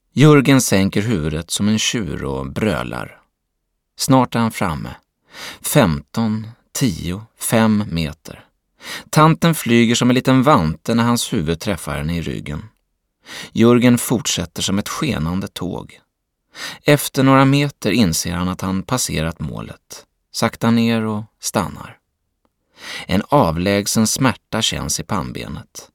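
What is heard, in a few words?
Swedish audiobook; svensk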